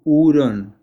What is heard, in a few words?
Ferret spanish